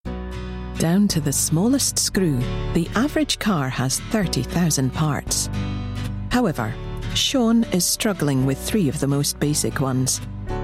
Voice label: Narrative, voice-over